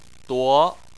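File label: "duo" second tone, Chinese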